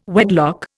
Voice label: "wedlock", Indian